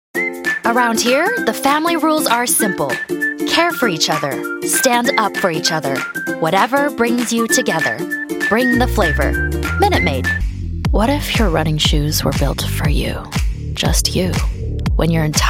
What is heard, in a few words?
Commercial